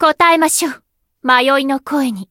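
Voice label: Voice chant, Japanese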